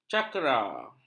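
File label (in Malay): "cakera", Malay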